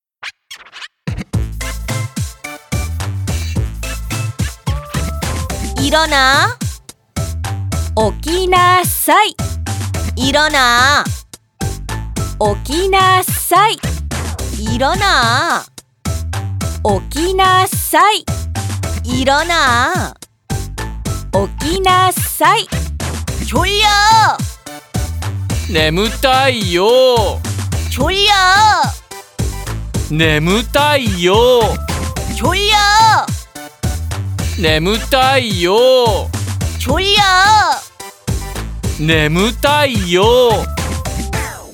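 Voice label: word Japanese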